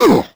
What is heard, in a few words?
hurt; Crypt of the NecroDancer